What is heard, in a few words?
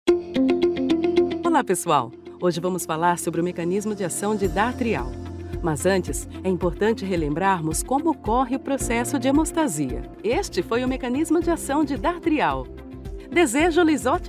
portuguese brazilian medical narration